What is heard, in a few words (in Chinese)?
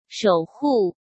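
"守护", Mandarin; chinese-flashcards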